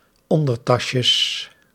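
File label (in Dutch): "ondertasjes", Dutch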